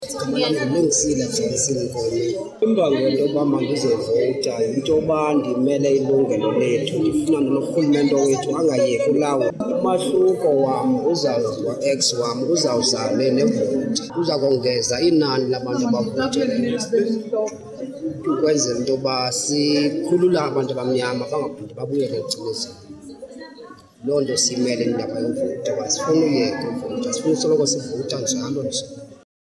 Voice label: Elections Vox Pops